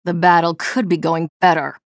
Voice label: chatter negative; nyx; respawn